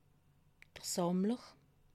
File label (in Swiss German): "sammler", alsace